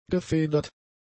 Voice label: "gefedert", German; adjectives

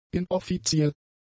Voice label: "inoffiziell", German; adjectives

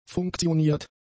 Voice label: "funktioniert", German; adjectives

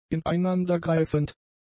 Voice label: "ineinandergreifend", German; adjectives

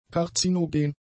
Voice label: "karzinogen", German; adjectives

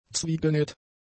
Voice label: "zwiegenäht", German; adjectives

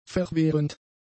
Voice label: "verwehrend", German; adjectives

